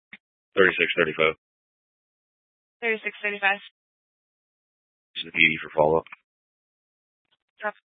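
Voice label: Police Dispatch, scanner audio recording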